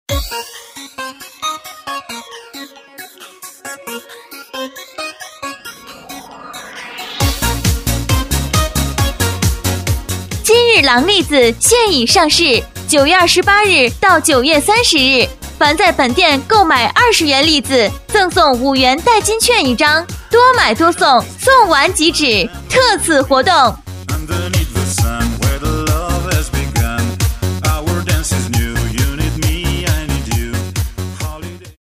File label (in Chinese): 【促销】; 栗子; 金日郎栗子